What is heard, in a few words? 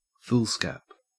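"foolscap" Australian English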